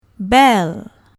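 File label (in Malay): "bel", Malay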